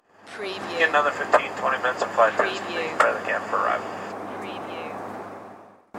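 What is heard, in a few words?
Pilot Prepare Cabin Arrival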